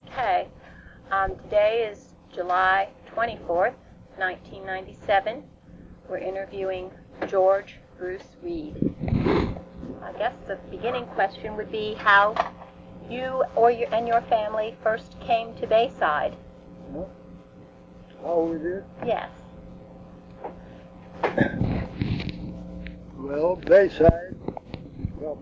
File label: Noise Removed, Oral Histories; Oral histories of Bayside residents; BHPS; 1997